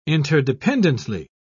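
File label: "interdependently", English, British English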